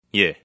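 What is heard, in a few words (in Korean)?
"yes", Korean; taunt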